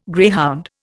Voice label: "greyhound", Indian

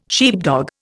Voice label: "sheepdog", Indian

